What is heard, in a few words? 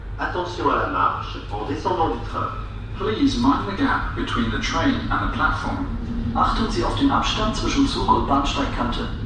mind the gap, Metro